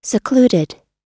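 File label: "secluded", US English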